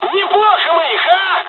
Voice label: dialog, dialogs russian; us midway; War Thunder